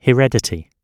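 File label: "heredity", British English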